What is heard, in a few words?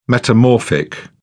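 "metamorphic", English, British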